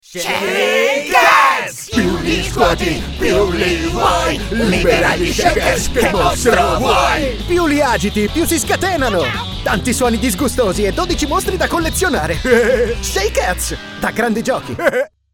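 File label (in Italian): jingle con più caratteri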